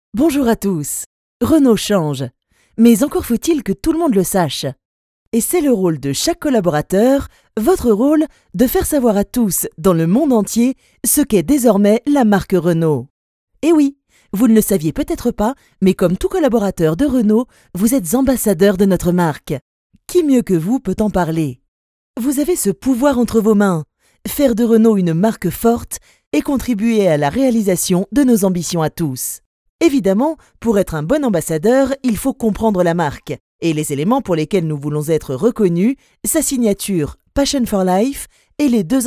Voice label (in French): elearning; RENAULT; voix off